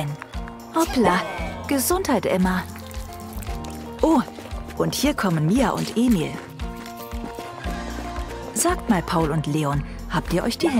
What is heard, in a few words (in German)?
erklaerf kinder; Sprecher in Berlin, Bremen, Hamburg, Hannover, Kiel, Lübeck, Lüneburg